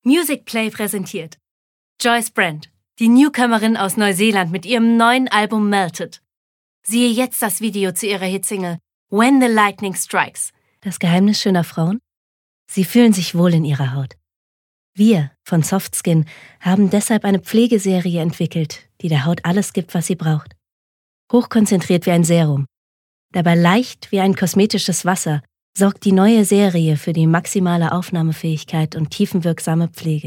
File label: German